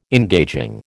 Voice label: "engaging", American

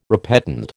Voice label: "repetend", American

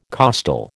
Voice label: "costal", American

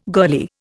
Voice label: "girlie", Indian

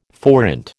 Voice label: "forint", American

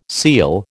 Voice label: "seel", American

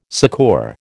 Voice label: "succour", American

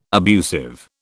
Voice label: "abusive", British

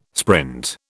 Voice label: "sprint", British

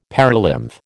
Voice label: "perilymph", American

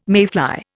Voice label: "mayfly", Indian

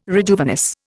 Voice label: "rejuvenesce", Indian

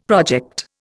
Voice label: "project", Indian; Dictionary

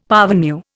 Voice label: "parvenu", Indian; Dictionary

